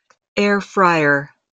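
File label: pronunciation "air fryer", English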